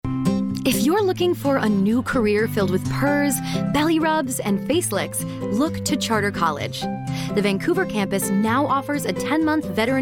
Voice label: TV Commercial; Charter College TV Commercial, Veterinary Assistant Program Lovable Creatures